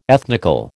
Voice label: "ethnical", American